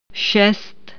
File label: "six", Czech